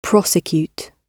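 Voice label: "prosecute", British English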